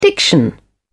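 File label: "diction", British English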